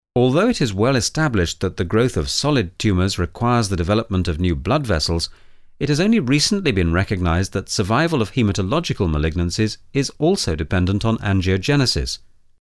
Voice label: english voiceover locutor ingles; spaker inglese